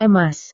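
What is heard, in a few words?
"emas", Indonesian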